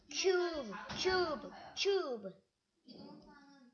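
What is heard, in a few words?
"cube", spoken word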